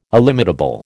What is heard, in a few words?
"illimitable", American